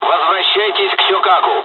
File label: mission fly, dialogs russian; War Thunder